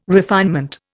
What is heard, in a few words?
"refinement", Indian